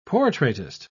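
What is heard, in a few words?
"portraitist", British English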